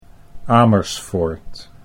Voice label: "Amersfoort", Dutch